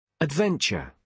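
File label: "adventure", British English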